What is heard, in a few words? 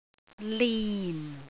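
"lin4", Cantonese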